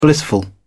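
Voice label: "blissful", British English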